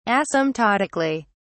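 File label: "asymptotically", British English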